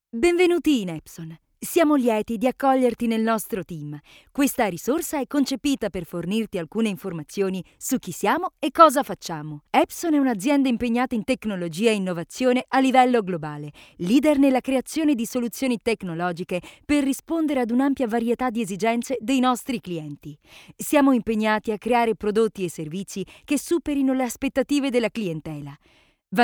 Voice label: italian corporate